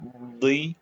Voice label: short voyels arabic alphabet